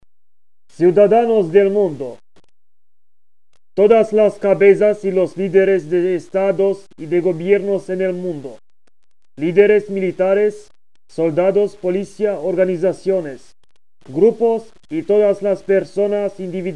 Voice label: global disarm call Spanish